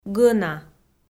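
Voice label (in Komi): "гӧна", Komi, female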